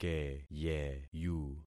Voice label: "keyeyu", concat syllables; Affixing experiment